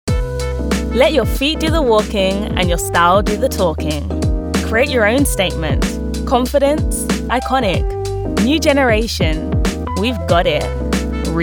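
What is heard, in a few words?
British English commercial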